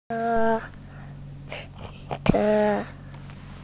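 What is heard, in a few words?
"che1", Chinese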